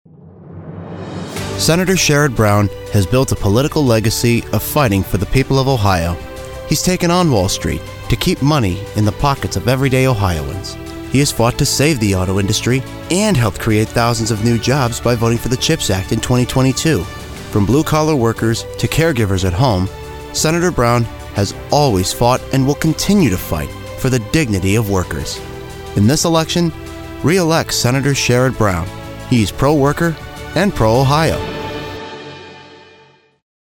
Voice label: Ad